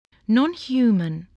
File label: "nonhuman", voice